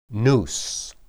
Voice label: "nus", Hebrew